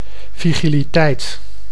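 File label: "vigiliteit", Dutch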